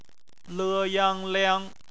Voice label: "liang", Chinese; phonetics; bpmf